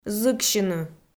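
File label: "зыксьыны", Komi, female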